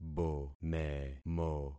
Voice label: "bomemo", concat syllables; Affixing experiment

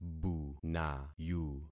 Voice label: "bunayu", concat syllables; Affixing experiment

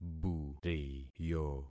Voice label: "buriyo", concat syllables; Affixing experiment

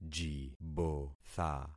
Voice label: "jibotha", concat syllables; Affixing experiment

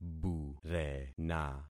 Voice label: "burena", concat syllables; Affixing experiment

